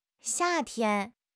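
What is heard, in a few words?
"xia4 tian1", Mandarin Chinese; HSK3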